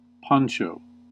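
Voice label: "poncho", US English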